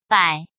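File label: "Bǎi", Chinese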